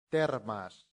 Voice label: "thermas", Latin; santillana